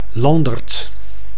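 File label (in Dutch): "Landerd", Dutch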